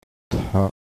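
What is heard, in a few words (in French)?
"sou", ton bas, thailandaise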